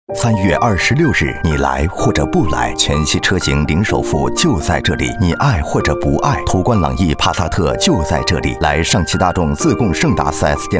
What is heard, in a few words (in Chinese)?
广告 汽车 男 沉稳温柔; 上汽大众汽车